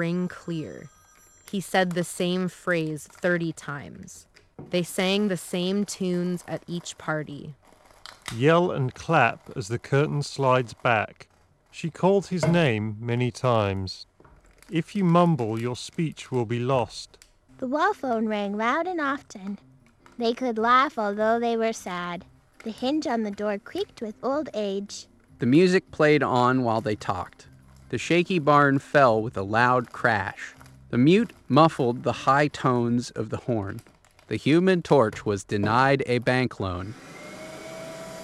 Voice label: Corsair Virtuoso RGB Wireless SE Office microphone